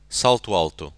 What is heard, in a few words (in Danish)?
portugisisk salto alto; [ˈsɑlˠtˈwɑlˠtʊ]